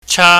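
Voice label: "cha", Cantonese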